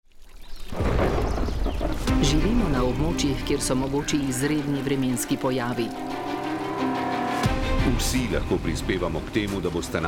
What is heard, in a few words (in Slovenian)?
Radijski spot; krepimo odpornost; krepimo odpornost naselij na podnebne spremembe